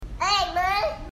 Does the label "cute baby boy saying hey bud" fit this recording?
Yes